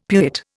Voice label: "pewit", Indian; Dictionary

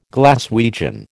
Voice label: "Glaswegian", American

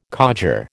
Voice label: "codger", American

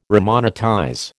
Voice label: "remonetize", American

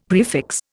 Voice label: "prefix", Indian; Dictionary

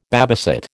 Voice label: "babysit", American

